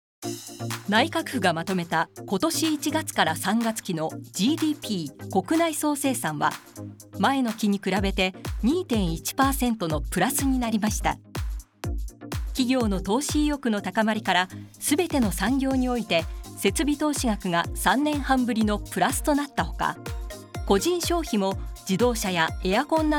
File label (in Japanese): ニュース読み